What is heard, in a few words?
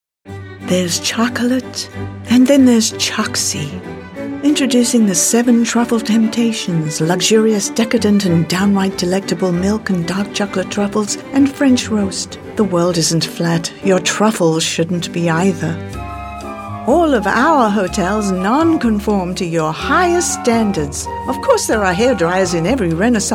English, females